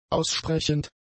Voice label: "aussprechend", German; adjectives